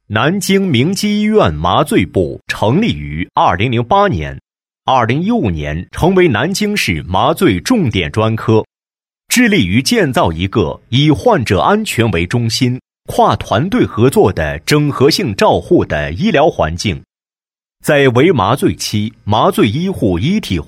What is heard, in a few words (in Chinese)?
男, 医院介绍; 南京明基医院麻醉部介绍